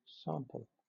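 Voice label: "sample", British English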